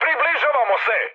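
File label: Serbian near waypoint mood high; speech; War Thunder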